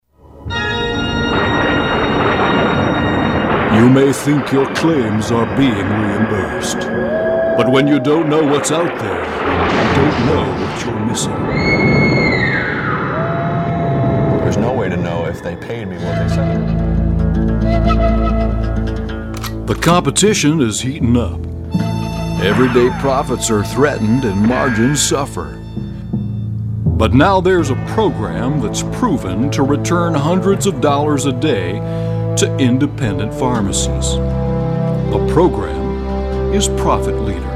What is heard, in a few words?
CharacterDemo; Character Voices